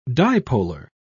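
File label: "dipolar", British English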